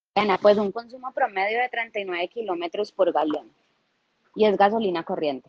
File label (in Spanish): CONVERSACION WASAP ASESOR AUDIO